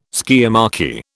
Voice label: "skiamachy", British